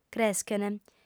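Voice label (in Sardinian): "creschent", female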